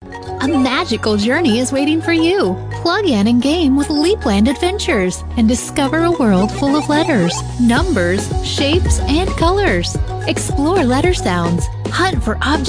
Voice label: English Voice Over Sample